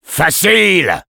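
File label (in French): positive vocalization, French; Sniper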